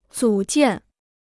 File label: "组建", Chinese